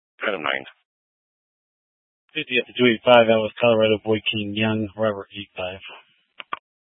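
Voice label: Troop 2A Dispatch, scanner audio recording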